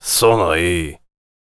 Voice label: warrior conversation emotion question